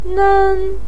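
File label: "nen", Mandarin Chinese first tone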